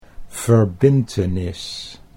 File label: "verbintenis", Dutch